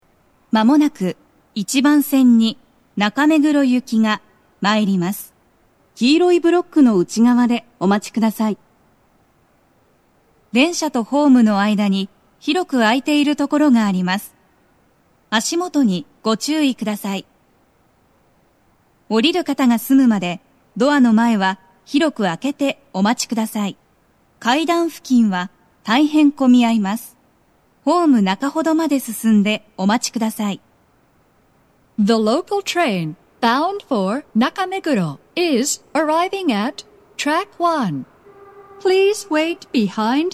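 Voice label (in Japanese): 接近放送, 混雑付帯, 女声; 放送は「中目黒行 (混雑付帯)」です。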